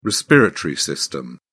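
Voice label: "respiratory system", British English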